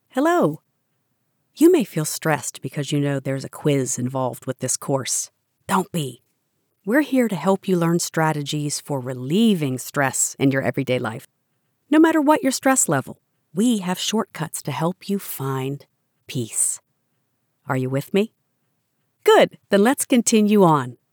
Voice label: kind cheerful eLearning voice sample

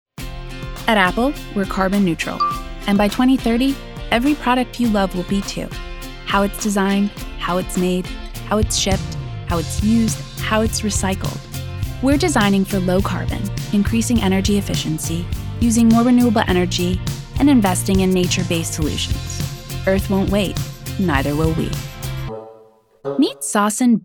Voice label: EXPLAINER

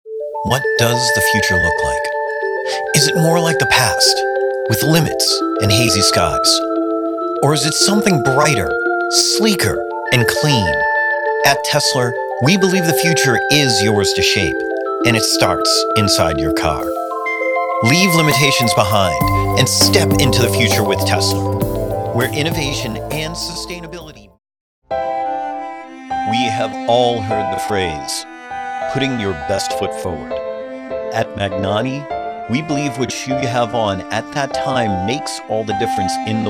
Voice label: Commercial, M

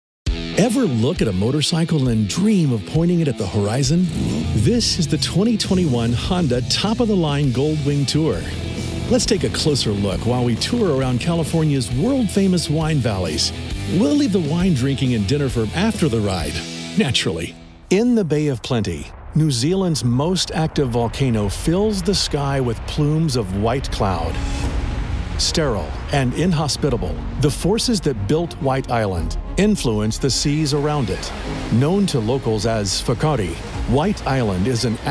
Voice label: Narration

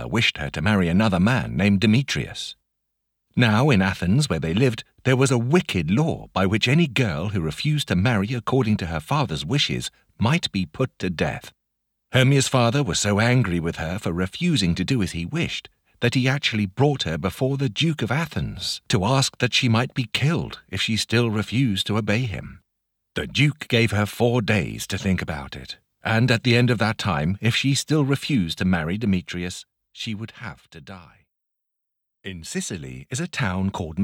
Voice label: Shakespeare Audiobook

